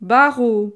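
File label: "barreau", French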